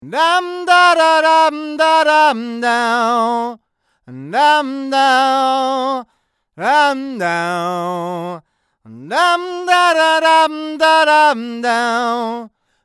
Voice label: Lewitt LCT240 near